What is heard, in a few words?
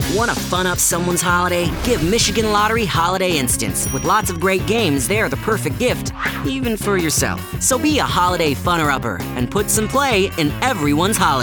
commercial